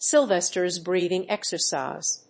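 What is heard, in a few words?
"Silvester's breathing exercise", English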